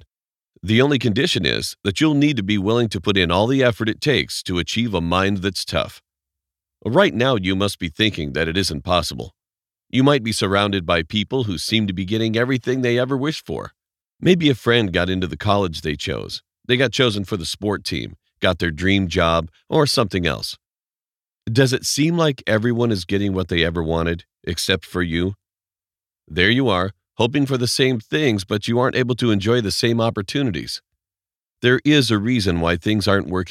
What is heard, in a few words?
e-learning mental strength